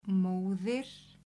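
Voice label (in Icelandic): "móðir", Icelandic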